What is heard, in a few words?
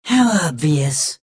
battle cry; Adachi